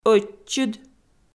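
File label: "ӧтчыд", Komi-Zyrian, female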